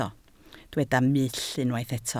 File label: "mull", Welsh